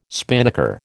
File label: "spinnaker", American